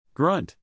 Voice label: "grunt", English, neural; animal sounds in english; pig; Stephen